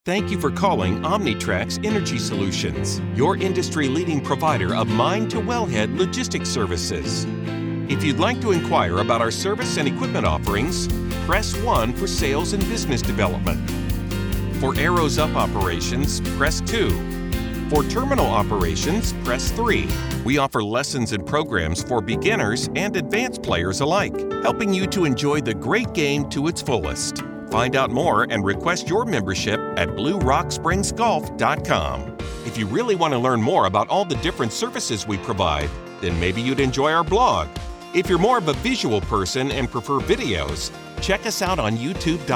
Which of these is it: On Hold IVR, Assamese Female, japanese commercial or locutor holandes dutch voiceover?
On Hold IVR